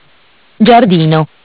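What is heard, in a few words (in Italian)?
"Giardino", Italian; Bentel; BLE320; MESSAGGI VOCALI; Audio BGS220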